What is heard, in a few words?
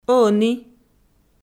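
"ӧні", Komi, female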